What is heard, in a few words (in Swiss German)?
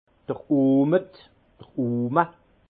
"ohmet", alsace; vin; mesure de 50 litres